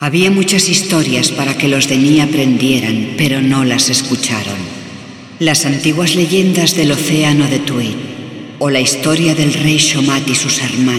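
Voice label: Speech spanish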